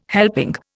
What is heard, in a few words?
"helping", Indian